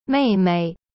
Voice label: "妹妹" mei mei, chinese

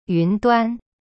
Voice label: "云端" yun duan, chinese

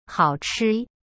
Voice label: "好吃" hao chi, chinese

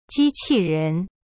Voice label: "机器人" ji qi ren, chinese; cyberchinese

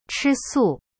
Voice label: "吃素", Chinese; cyberchinese

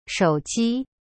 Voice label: "手机" shou ji, chinese; cyberchinese

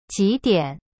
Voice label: "几点" jidian, chinese

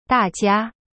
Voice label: "大家" da jia, chinese

